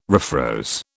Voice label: "refroze", British

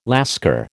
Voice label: "Lascar", American

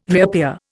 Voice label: "reappear", Indian

